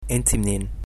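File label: "where from", Arabic, Egyptian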